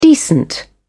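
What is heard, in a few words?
"decent", English, British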